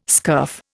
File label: "scurf", Indian